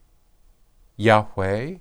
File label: "yhwh", Hebrew